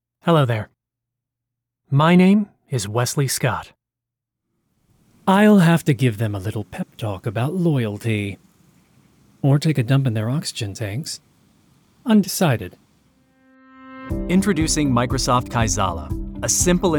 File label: Voice Over